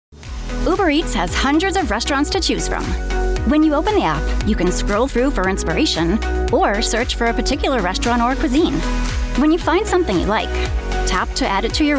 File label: Explainer